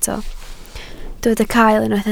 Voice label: "cael", Welsh

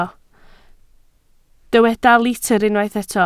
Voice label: "litr", Welsh

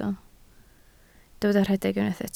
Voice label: "rhedeg", Welsh

